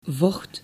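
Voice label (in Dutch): "vocht", Dutch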